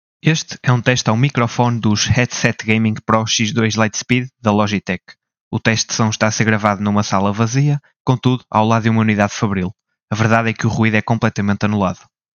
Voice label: logitech g pro x2 lightspeed mic test; pplware